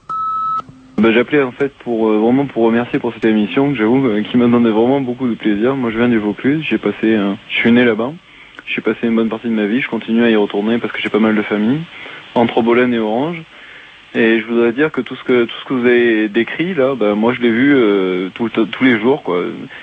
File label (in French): repondeur; Bidonville rural